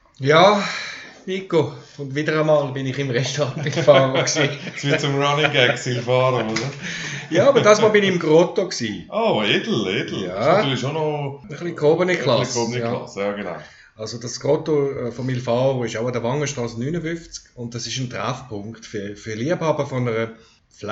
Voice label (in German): Werbung podcast; il faro Grotto; wangen-strasse duebendorf